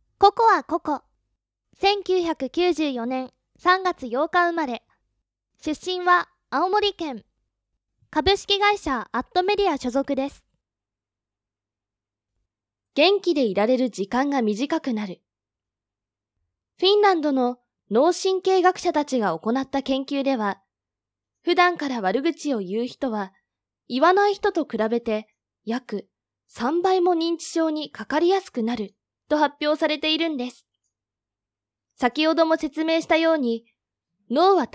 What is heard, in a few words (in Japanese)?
若い女性のナレーション